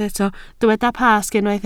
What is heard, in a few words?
"Pasg", Welsh